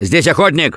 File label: Warn Hunter, russian; Gambler